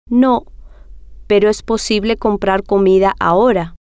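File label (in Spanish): no Pero Es Posible Comprar Comida Ahora, spanish, female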